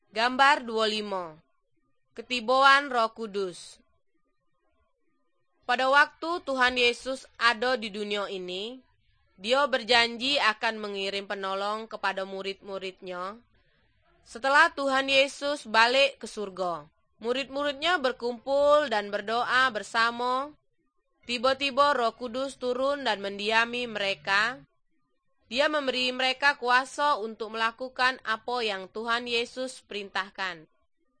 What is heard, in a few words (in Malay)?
good news malay jambi